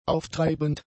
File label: "auftreibend", German; adjectives